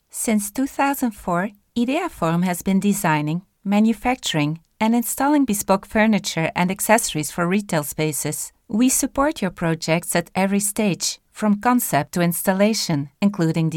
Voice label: Vocaliz EN; Ideaform